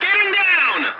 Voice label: voice message landing, english; War Thunder